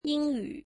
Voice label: English, mandarin